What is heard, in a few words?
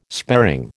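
"sparing", American English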